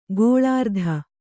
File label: "ಗೋಲಾರ್ಧ", Kannada